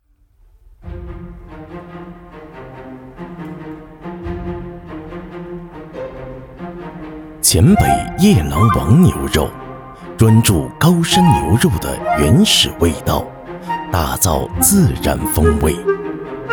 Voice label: 男 纪录; 舌尖、美食

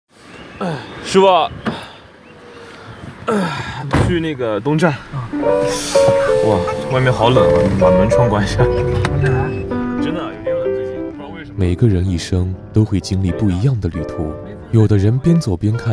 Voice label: 男 片头包装; 《走走停停》

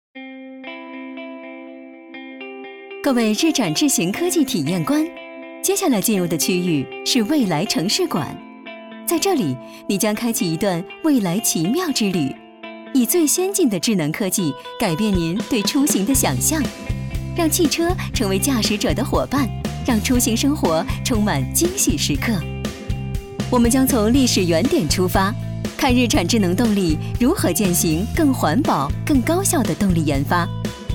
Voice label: 女 宣传片 【阳光科技感】; 日产汽车智能动力

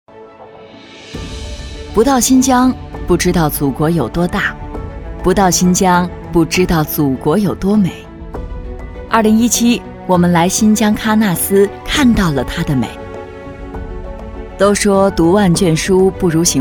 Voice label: 女 纪录片; 《大美新疆》

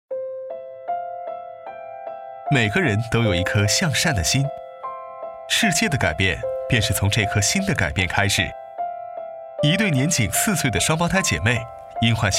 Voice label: 男 年轻叙述

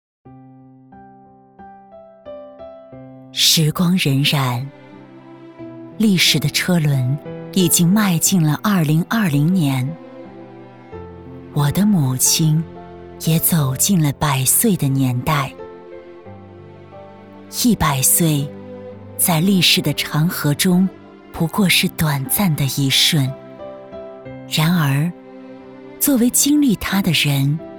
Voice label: 女 低沉大气; 历史车轮

